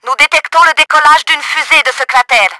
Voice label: ROCKET LAUNCHED, french; Battle Engine Aquila; XBOX